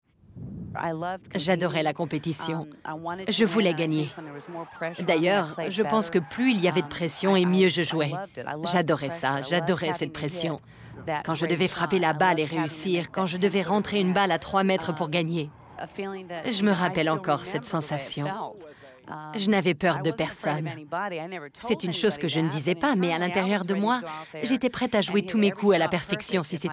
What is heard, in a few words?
voiceover; golf academy